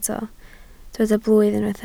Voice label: "blwydd", Welsh